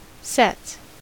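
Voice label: "sets", American English; pronunciations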